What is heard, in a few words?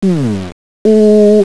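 close back rounded; london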